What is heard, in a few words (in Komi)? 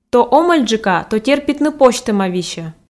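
то омӧльджыка то терпитны позьтӧма висьӧ, Komi, female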